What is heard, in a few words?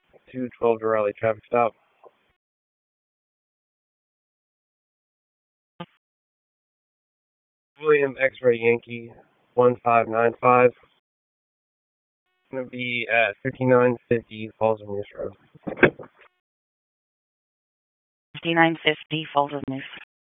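Police Northeast District Disp, radio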